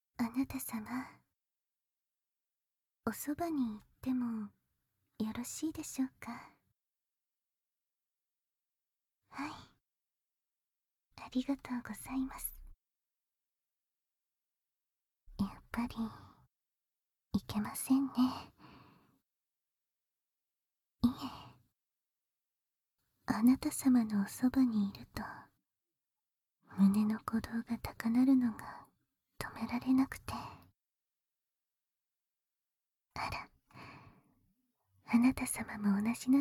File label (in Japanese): 添い寝でお休みなさい (バイノーラル 効果音あり)